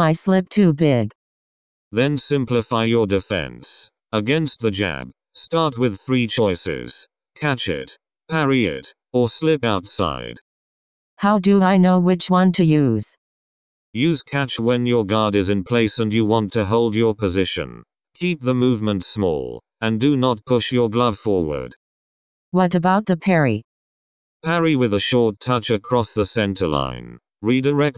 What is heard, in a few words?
full slow, english, boxing coach communication